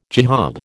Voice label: "jihad", American